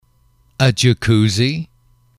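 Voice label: "jacuzzi", English, male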